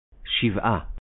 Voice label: "Shivah", Hebrew